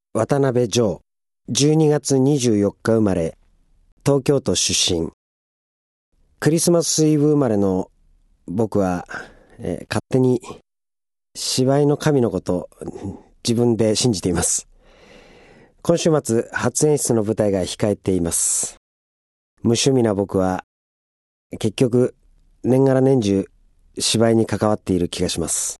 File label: free talk, male voice